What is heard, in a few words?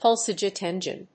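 "pulse-jet engine", English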